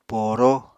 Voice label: "pooró", Apatani